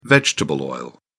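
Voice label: "vegetable oil", British English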